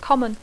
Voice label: "common", English; adjectiu; comú; corrent; freqüent; habitual; usual